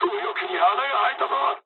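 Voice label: Japanese right wing mood med; War Thunder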